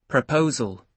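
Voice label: "proposal", British English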